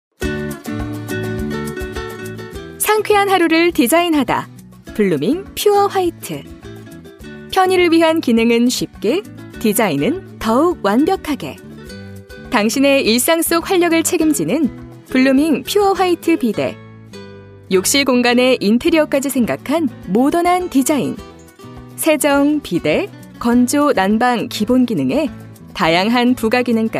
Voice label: 밝은 느낌의 제품 홍보 bgm 20대

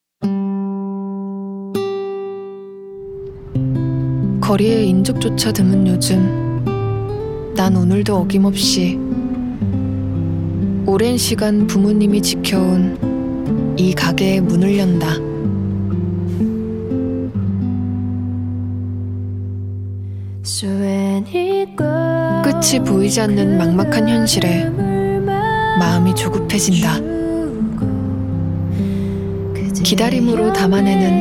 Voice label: 광고 담담하며 서정적인; 채널A 소상공인 프로젝트

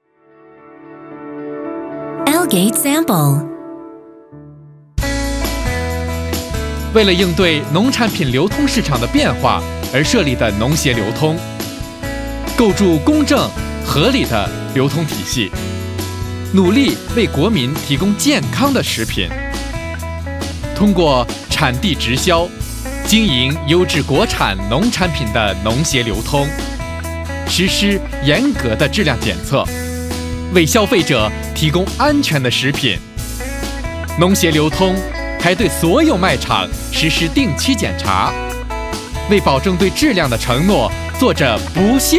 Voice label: 중국 남 밝은톤

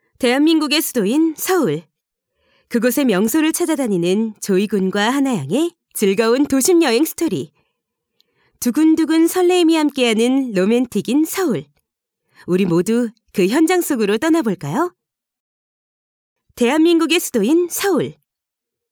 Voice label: 살짝밝음

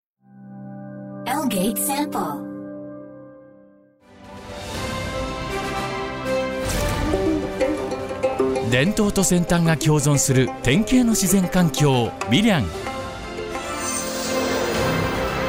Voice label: 일본 남 강한톤; 밀양-나노융합-산업단지

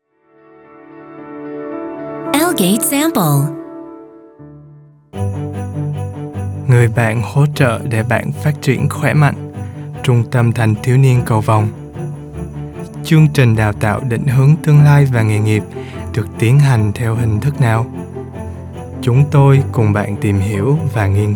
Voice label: 베트남 남 차분한 톤 호치민 억양

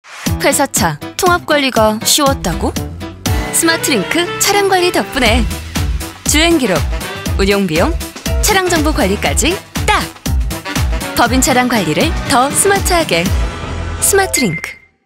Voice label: 똑똑한 20대; 스마트링크 차량관리편

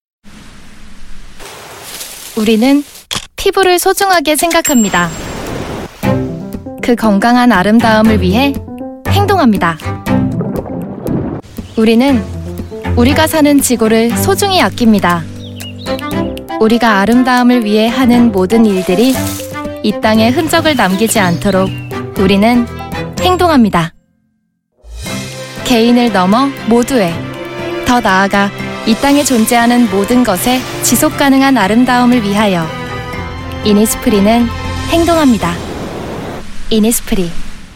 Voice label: 어린 순수 자연스러운; 이니스프리

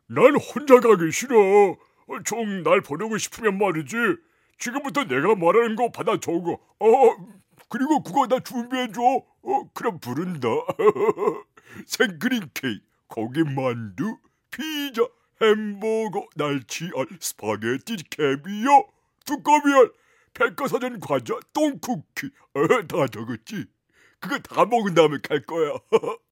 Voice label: 덩치 먹보 바보 성우

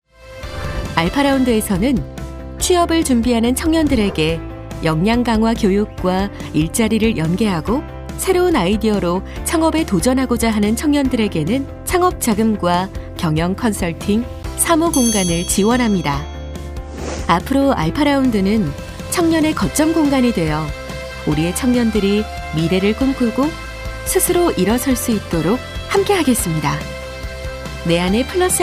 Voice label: 멘트 신뢰감잇는 따뜻한 차분한 30대; 알파라운드

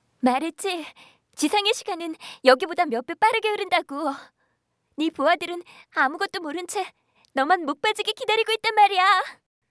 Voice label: 10대 20대 연약한; 삼장목소리

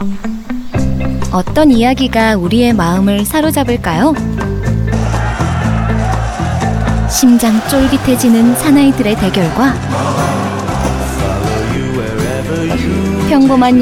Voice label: 밝은 느낌의 내레이션 광고

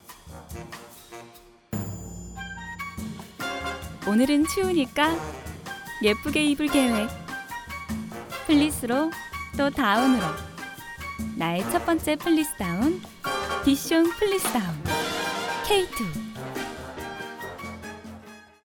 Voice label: CM 친절한 20대 30대; 플리스다운 K2

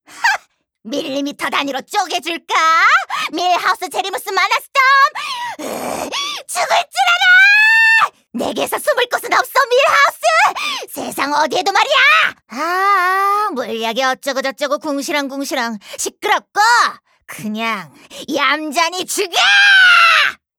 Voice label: 신경질적이고 시끄러운 10대 20대

